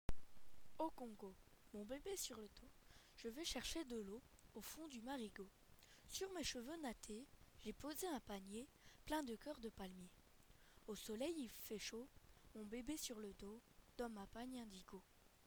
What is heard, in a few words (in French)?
Narration; Au congo